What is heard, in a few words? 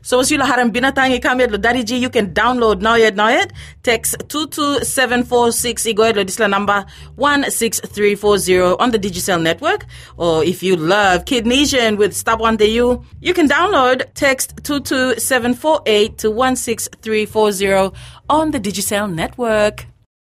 TOKPISIN